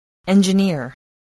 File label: "engineer", spelling